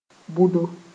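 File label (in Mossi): "bʋdo", Mooré; which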